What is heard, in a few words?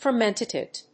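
"fermentative", English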